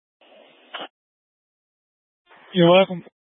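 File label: Incident Tactical, scanner audio recording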